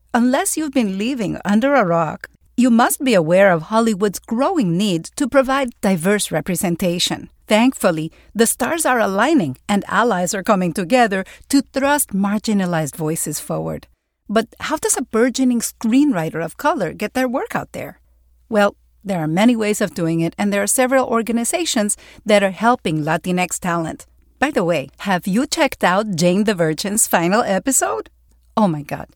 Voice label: Hispanic accent; Sofia Vergara

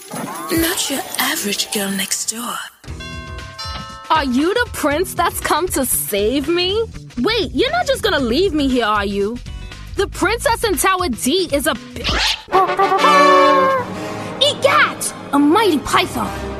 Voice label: Character Demo

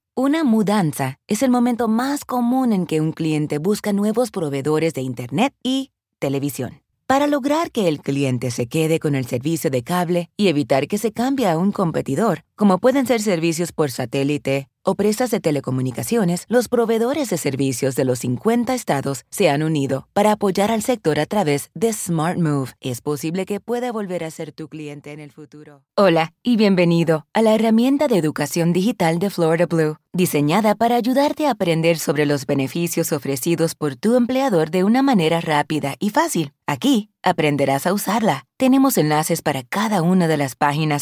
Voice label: Spanish Corporate

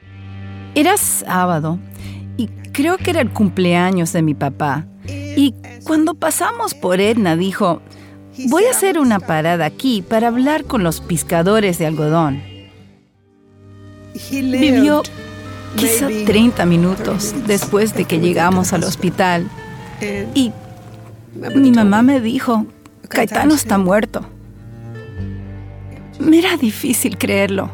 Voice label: Documentary Spanish

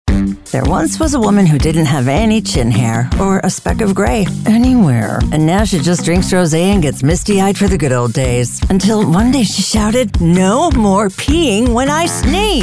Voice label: Commercial, voiceover

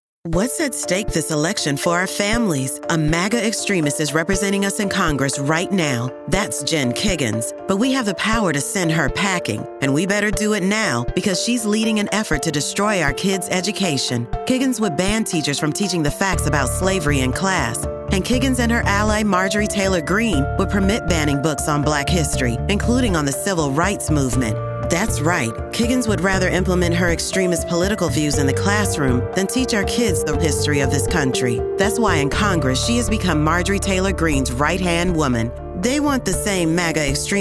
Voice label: Attack Ad, voiceover; political; Jen Kiggans

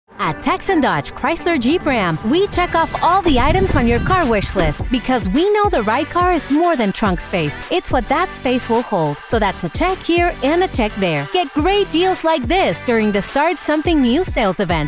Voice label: Automotive English

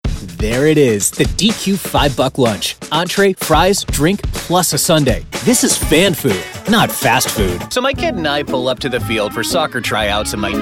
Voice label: Commercial, voiceover

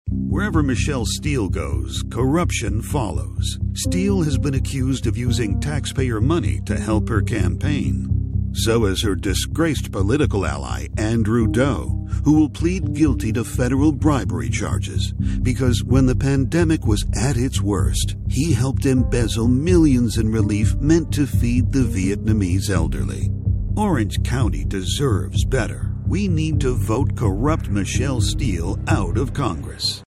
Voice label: VO attack ad; political; voiceover; Michelle Steel

